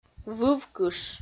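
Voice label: "вывкыш", Komi, female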